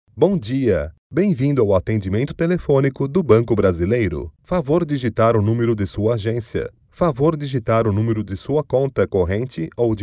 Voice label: Brazilian Portuguese

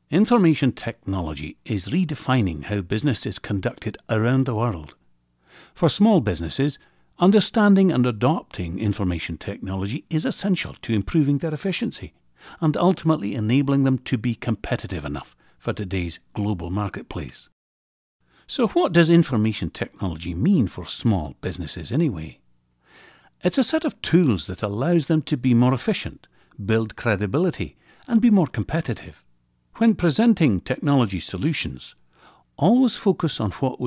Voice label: Scot, voice talent

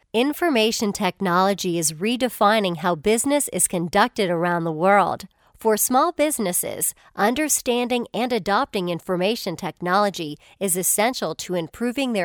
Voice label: US English

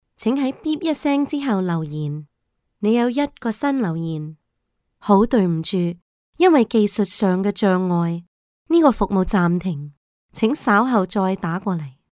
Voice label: Cantonese IVR

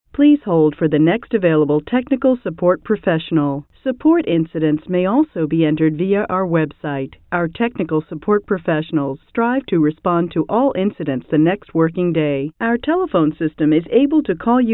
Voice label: US English

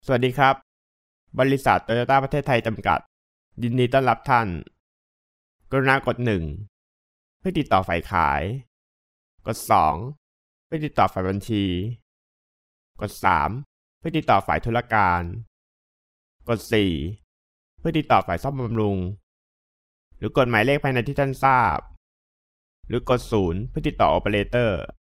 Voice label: Thai

